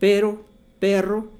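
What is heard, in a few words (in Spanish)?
"pero perro", Spanish, Mexico, Distrito Federal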